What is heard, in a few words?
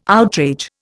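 "outrage", Indian English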